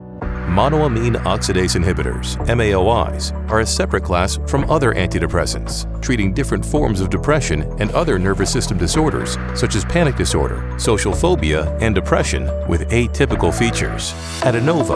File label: english american medical narration